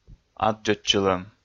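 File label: "аддзӧдчылӧм", Komi, male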